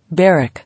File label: "Barrack", English